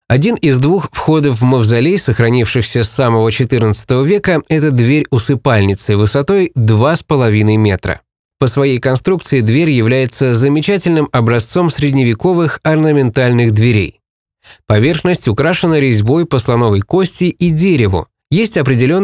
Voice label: audioguide rus; vulkanov